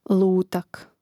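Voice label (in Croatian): "lutak" Croatian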